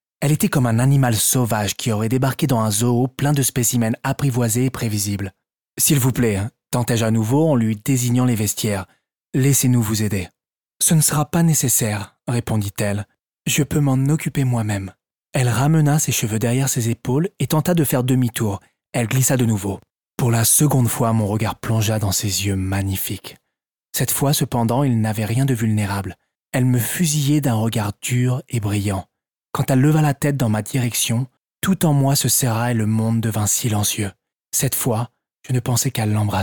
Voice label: AUDIO BOOK